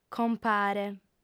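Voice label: "compare", female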